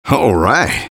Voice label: eng taunt head end; vo; taunts; tf2; engy